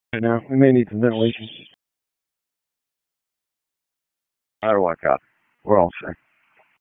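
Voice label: FIRE Operations, p25; FIRE OPS 2; wake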